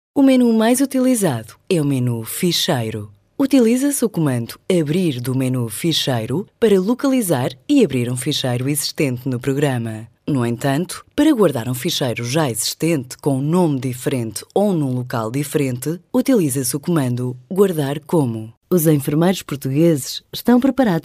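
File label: Portuguese, female, narration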